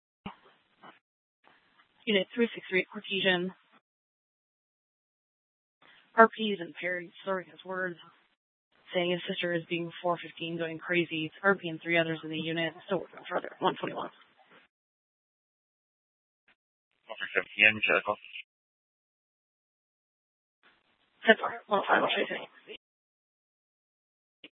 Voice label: PD Dispatch

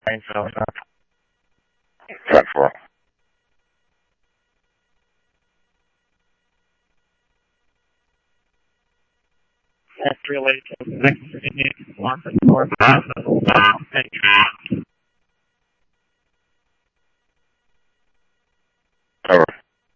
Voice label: Police, scanner audio trunk; H-Scottsdale

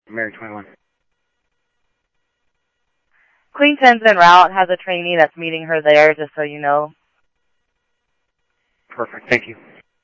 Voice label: Mesa Simulcast, MESA PD, scanner audio

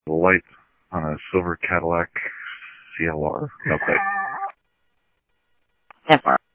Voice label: PD Police, scanner audio

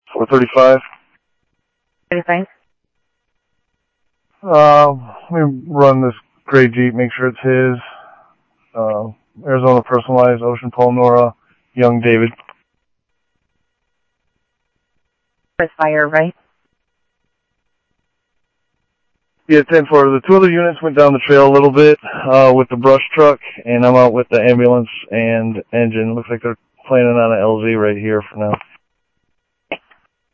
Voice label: Sheriff, scanner audio; SoutheastCenMesa; Sheriff-EastCnty; MCSO - EAST

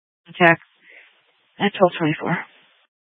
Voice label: Police Dispatch

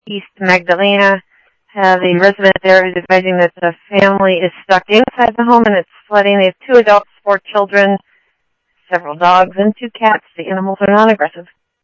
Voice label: Tempe Police, scanner

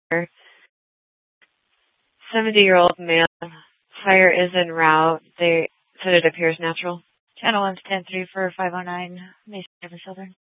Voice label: Superstition Dispatch, Mesa PD

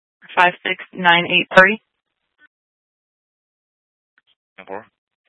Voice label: Sheriffs Office, scanner

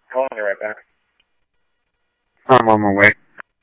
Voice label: Police, scanner

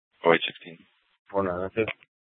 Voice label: Dispatch; Scottsdale

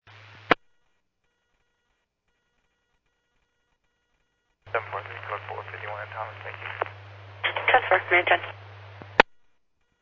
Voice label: Medevac, scanner; PHIAIREVACHelRad

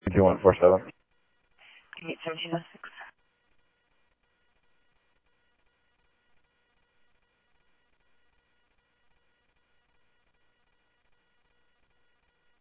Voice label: Simulcast Police Dep, scanner audio trunk; Mesa Simulcast; MESA PD 3 Red Mt; MesaPoliceDep

